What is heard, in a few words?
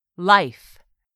"life", English; 3000 words